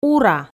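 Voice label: "ура", Komi, female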